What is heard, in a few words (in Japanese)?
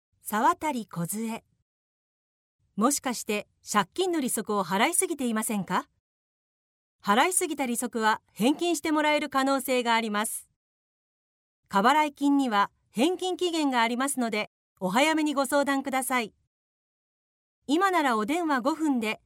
ナレーション